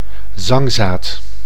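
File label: "zangzaad", Dutch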